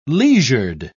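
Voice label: "leisured", British English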